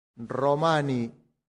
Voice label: "romani", latin; santillana